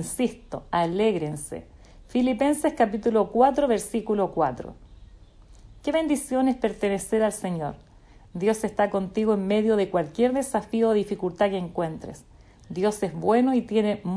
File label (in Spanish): devocional